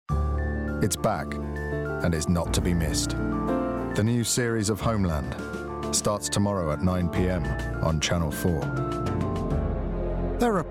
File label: foreigner